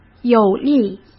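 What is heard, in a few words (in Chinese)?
"有力", Mandarin Chinese; hsk; chinese-flashcards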